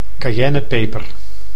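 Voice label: "cayennepeper", Dutch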